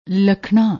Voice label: "lakhnaa", Punjabi

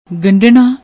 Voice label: "ganddnna", Punjabi; ਗੰਢਣਾ

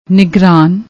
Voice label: "nigraan", Punjabi; ਨਿਗਰਾਨ

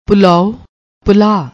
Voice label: "pullao", Punjabi; ਪੁਲਾਉ

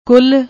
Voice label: "kul", Punjabi

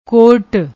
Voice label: "court", Punjabi; ਕੋਰਟ

